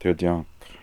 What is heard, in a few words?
"Tirdiank", pronounciations; Fourth Way; Beelzebub